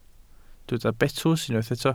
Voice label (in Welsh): "betws", Welsh